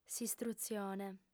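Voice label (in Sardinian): "s'istrutzione", female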